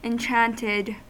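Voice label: "enchanted", US English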